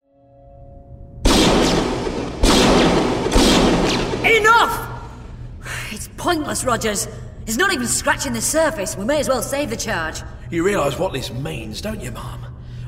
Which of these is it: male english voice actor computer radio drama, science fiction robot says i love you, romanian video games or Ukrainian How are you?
male english voice actor computer radio drama